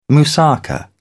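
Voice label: "moussaka", British English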